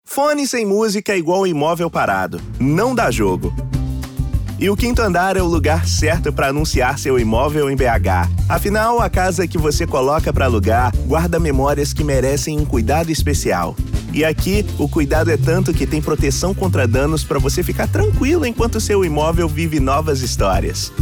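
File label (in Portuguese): SIMPÁTICO CONVERSADO; QUIINTO ANDAR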